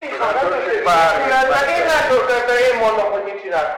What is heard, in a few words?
megaphone